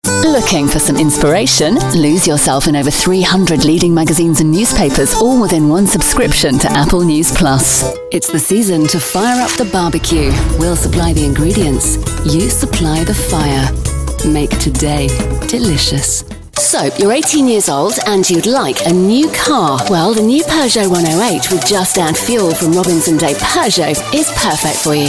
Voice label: commercials